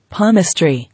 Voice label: "Palmistry", English